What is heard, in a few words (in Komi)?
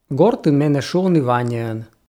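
"гортын менӧ шуӧны ваняӧн", Komi, female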